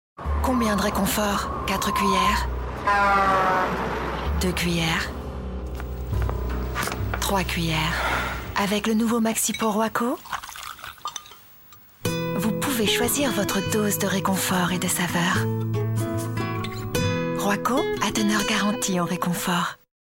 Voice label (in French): complice reconfortante; Royco